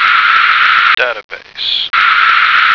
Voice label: "database", American